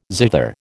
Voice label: "zither", American

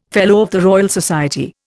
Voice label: "FRS", Indian

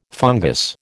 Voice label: "fungous", American

